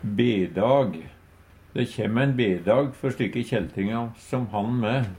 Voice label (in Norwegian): "bedag", Numedalsmål; Uttrykk